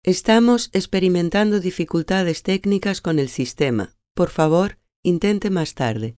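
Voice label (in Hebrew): Spanish, קריינות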